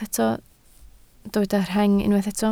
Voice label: "rheng", Welsh